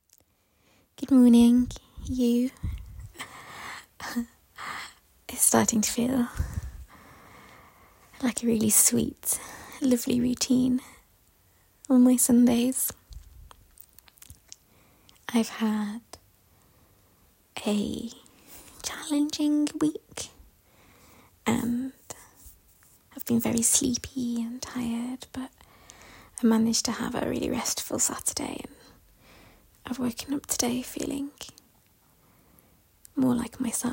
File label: F4M, British accent, story; long distance; Longing for you